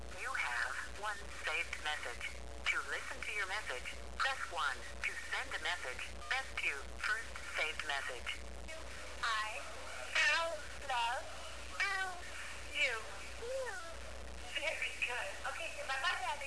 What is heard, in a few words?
Child Voicemail Message